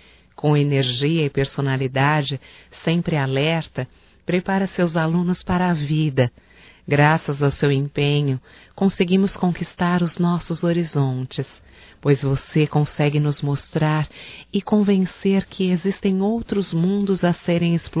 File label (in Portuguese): HOMENAGEM AO PROFESSOR (F), Só Voz; Telemensagens; HOMENAGENS